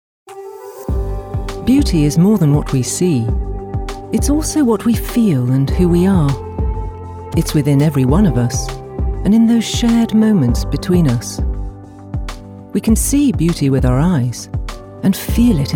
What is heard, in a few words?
english british promos